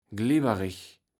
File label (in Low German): "glibberich", kaevels platt